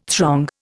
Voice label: "throng", Indian

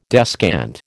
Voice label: "descant", American

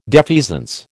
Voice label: "defeasance", American

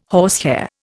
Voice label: "horsehair", Indian

